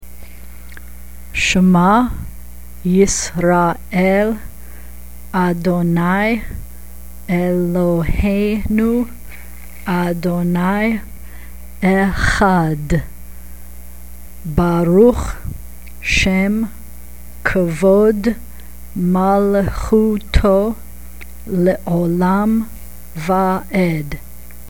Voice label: Shema read; Bnai Mitzvah Requirements; Service Practice